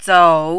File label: "zou3" Mandarin